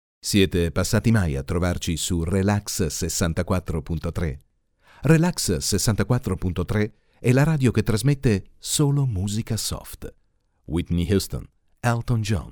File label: Ita male Radio ad, italian